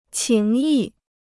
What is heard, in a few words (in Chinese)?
"情谊", Chinese